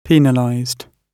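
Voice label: "penalized", British English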